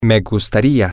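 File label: i Would Like, spanish, male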